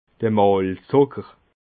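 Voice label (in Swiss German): "malzzucker", Alsatian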